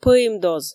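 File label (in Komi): "пӧимдоз", Komi, female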